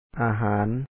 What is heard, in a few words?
"?aaha4an", Lao; food